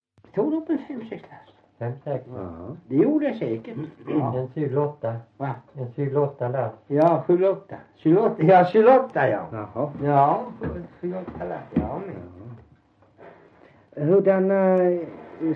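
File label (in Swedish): Värö Halland, Svenska sagor dialekter; Folkminnen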